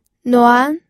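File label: "nuan", second tone, pinyin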